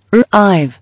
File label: "Arrive", English